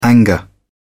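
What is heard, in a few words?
"anger", British English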